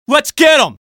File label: scout battlecry; svencoop addon; tfc; player